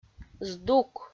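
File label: "здук", Komi, female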